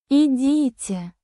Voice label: russian phrases

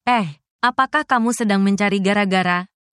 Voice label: indonesian phrases

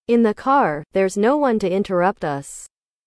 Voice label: english

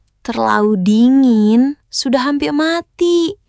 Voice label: indonesian phrases

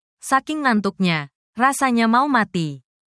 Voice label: indonesian phrases

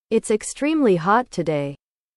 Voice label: english

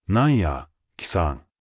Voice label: anime

